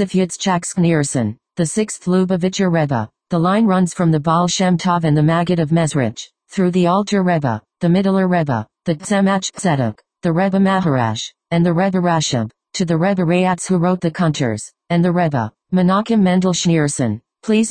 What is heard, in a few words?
Tree Narration EN